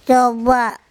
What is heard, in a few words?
"cOba" fish, andamanese